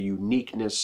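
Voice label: "uniqueness", American English; pronunciations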